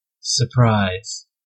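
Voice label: "surprise", American English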